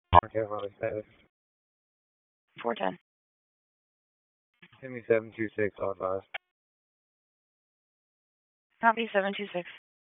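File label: Police Southeast District Dispatch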